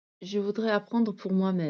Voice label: voice message